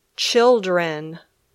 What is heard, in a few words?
"children", English